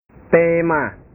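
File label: "tema", Indonesian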